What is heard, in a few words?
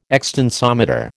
"extensometer", American